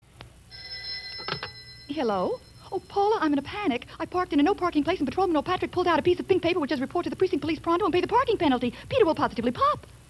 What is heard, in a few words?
Radio News On The Hour, Coffee spot; WBAP; NBC; Chase Sanborn